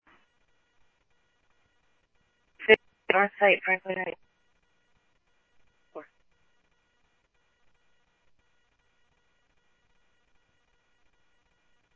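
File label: police department, scanner audio trunk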